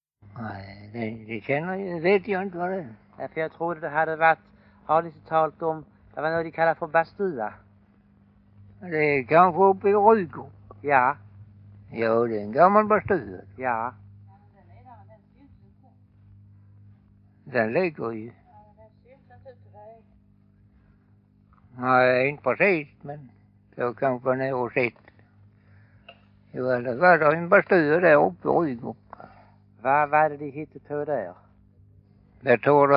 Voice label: Färingtofta, dialekter; Folkminnen

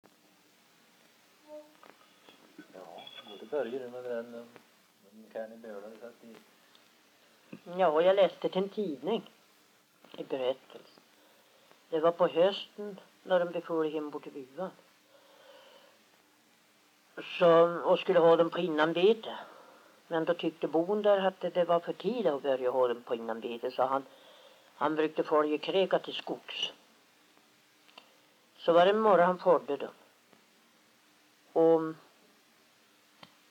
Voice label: dialekter; Folkminnen

